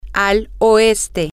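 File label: to The West, Spanish, female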